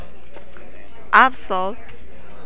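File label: "absorb", Japanese female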